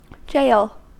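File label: "jail", US English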